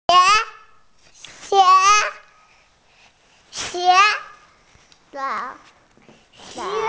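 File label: "xie2", Mandarin Chinese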